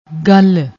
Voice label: "gal", Punjabi